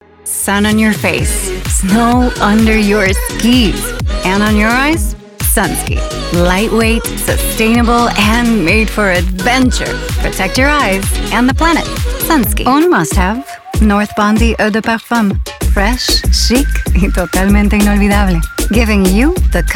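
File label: Latin American Spanish, Accented English; GoLocalise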